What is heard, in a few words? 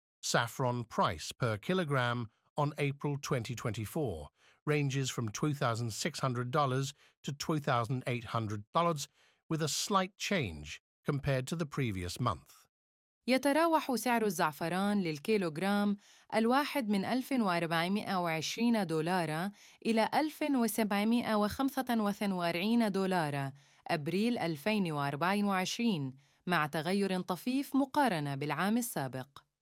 saffron price changes voice memo